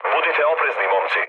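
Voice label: Serbian chat; War Thunder; speech srb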